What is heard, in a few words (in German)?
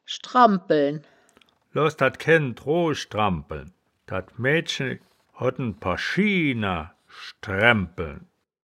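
"schdram-bpeln", Wittlicher Wörterbuch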